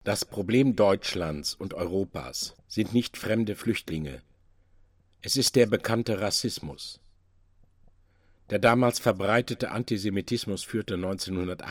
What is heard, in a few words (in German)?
ansage